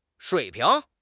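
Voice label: "shui3 ping2", Mandarin Chinese; HSK3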